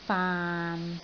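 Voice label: "faan6", Cantonese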